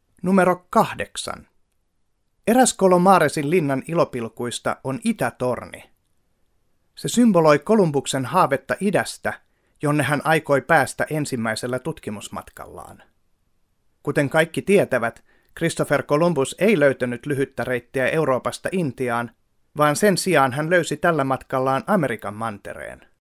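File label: Finnish, male, elearning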